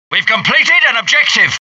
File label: british success; dialogue; mohaa